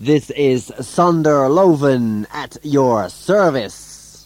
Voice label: Jingle at your service